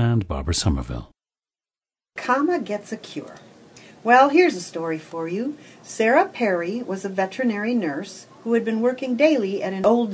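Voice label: michigan, International Dialects of English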